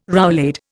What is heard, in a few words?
"roulade", Indian